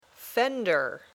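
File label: "fender", English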